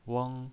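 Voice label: "weng", pinyin voice, first tone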